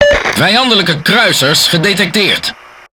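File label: NL Speech Detection Enemy Cruiser, Dutch; World Of Warships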